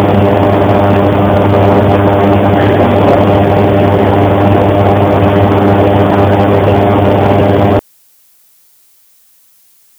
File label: Strange Voice